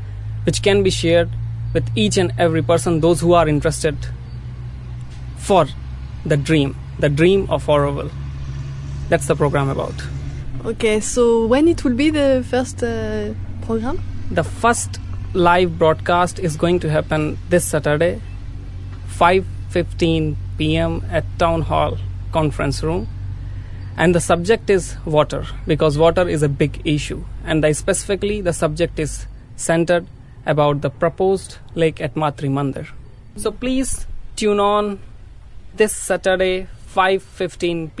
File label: interview dialogue annoncement english